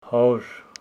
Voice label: Homshetsi a hundered